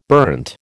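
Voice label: "burnt", American English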